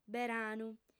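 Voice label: "beranu", female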